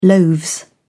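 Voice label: "loaves", English, British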